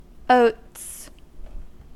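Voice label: "oats", British English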